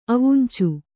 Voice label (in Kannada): "ಅವುಂಚು", kannada